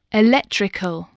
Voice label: "electrical", spelling